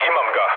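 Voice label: Serbian player killed enemy mood low; War Thunder; speech srb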